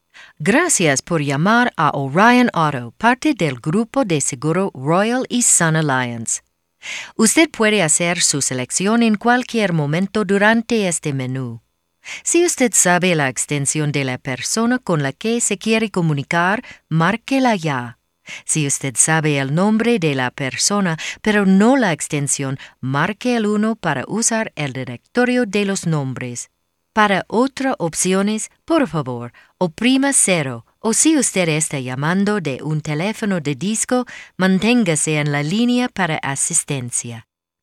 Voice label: spanish